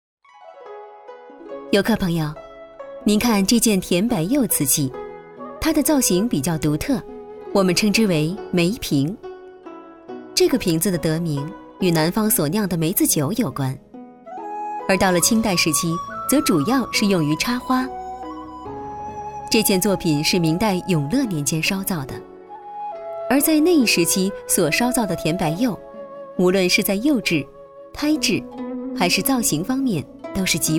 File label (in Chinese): 女 专题 讲述感 博物馆展品介绍, 国语女声配音